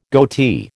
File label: "goatee", American